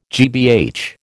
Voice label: "GBH", American